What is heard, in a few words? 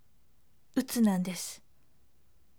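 voice, firm; utunadesu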